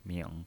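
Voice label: "ming", pinyin voice, Mandarin Chinese, third tone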